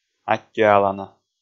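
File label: "аттьӧалана", Komi, male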